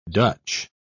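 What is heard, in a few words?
"dutch", English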